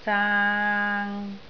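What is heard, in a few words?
"zaang3", Cantonese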